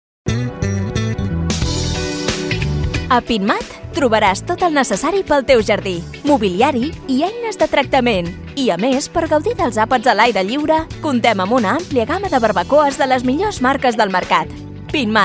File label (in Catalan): PROMO JARDIN CATALAN; pinturas andalucia